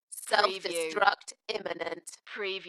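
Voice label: UK female, DJ vocals; Dalekness; beanstalk-audio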